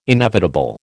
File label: "inevitable", American